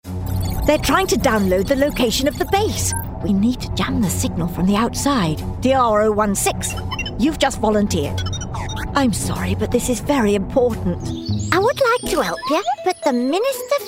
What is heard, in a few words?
Animation Character